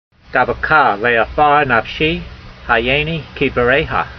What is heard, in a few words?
voice, hebrew language; Psalm 119:25; davekah le'a'far nafshee, ha'yaynee keedvareyha